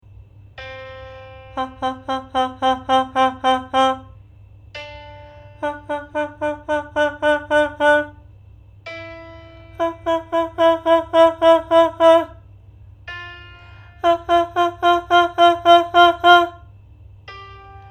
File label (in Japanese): 「ハ」ボリュームコントロール